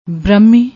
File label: "brahmmi", Punjabi